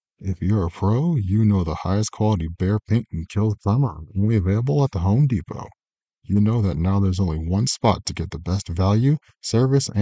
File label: Ad; Home Depot